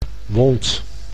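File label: "wond", Dutch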